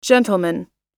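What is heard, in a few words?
"gentleman", English; oxford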